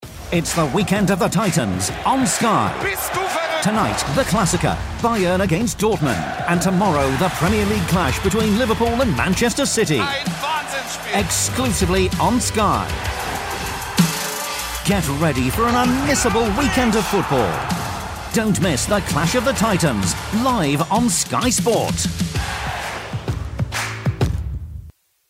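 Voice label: promo, British Believable Voice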